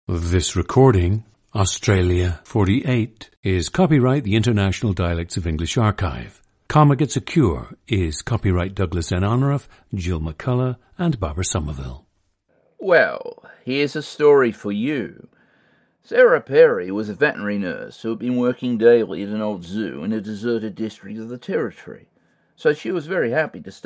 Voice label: australia; Australia 48; International Dialects of English Archive; a 50-year-old man from Melbourne, Victoria